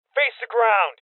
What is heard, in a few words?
Voices Order To Surrender Male Young